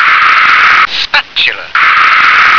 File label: "spatula", English